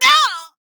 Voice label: Pain, Female; Warframe; Railjack; Cephalon Suda

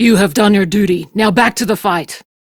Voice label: Crew Heal, Steel Meridian Female; Dialog; en; Warframe; RailjackCrew

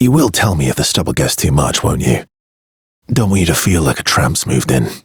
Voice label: Ambient Barks, en; Warframe; Mall Romance; Arthur

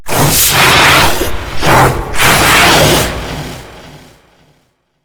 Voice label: Boss Speak Combat Taunt; Dialog; Taunts; Golem; Warframe

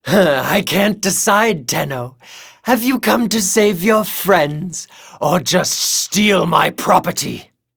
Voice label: Taunt Neutral

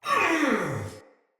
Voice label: Death Soldier Male; Sinecure Soldier Male A

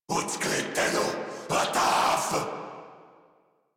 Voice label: Player Spotted Corrupted Grineer Male

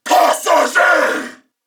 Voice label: Attack Male, en

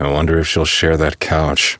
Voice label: Citizen Male, en; Citizens; Warframe; Mall

